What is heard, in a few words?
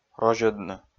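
"розьӧдны", Komi, male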